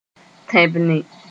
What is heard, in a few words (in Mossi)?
"tẽbne", Moore